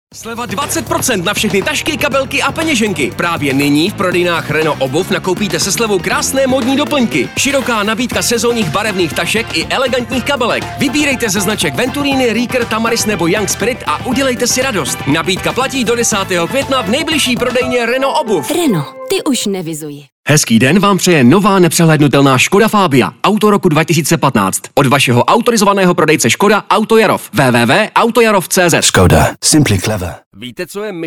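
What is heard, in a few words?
Czech, male; Professionelle Sprecher und Sprecherinnen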